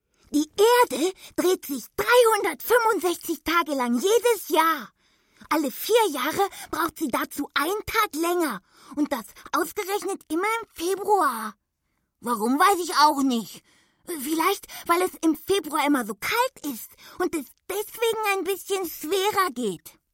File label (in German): Trickstimme Baby